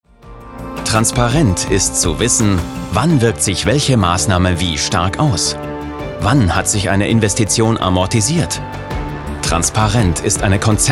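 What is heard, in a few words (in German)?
advert, Neutral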